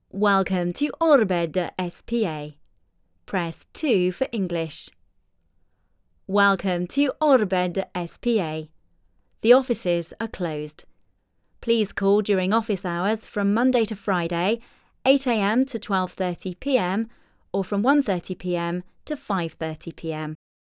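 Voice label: English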